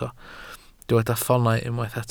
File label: "ffoonau", Welsh